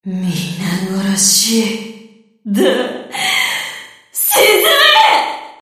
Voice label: Enemy Voice Submarine Shark Water Demon Damaged Attack